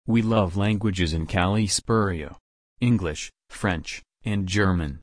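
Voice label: We love languages, En US; Amazon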